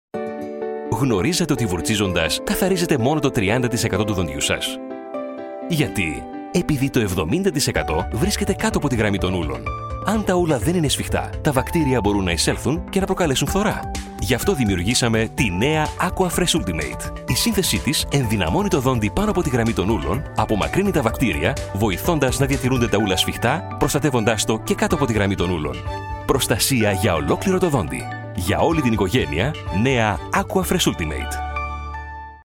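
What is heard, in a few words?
Greek, male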